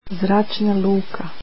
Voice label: airport, croatian